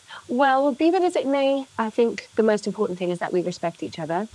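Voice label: voice acting, es, female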